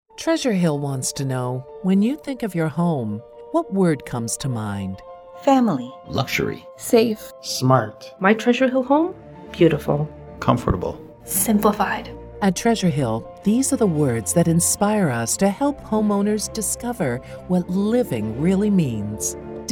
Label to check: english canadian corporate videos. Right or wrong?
Right